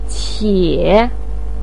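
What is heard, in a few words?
"qie", Mandarin Chinese syllable, third tone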